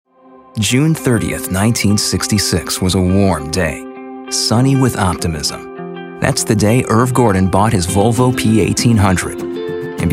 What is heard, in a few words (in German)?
TV Werbespots; Jugendfrei; NATIVE PROFISPRECHER